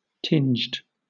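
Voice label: "tinged", British English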